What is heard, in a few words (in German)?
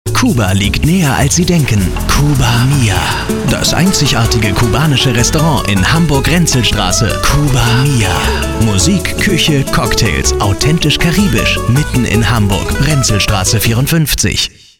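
off, sprecher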